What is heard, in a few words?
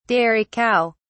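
"dairy cow", British English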